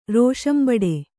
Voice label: "ರೋಷಂಬಡೆ", Kannada

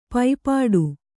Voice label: "ಪೈಪಾಡು", Kannada

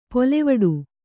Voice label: "ಪೊಲೆವಡು", Kannada

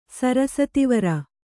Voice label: "ಸರಸತಿವರ", Kannada

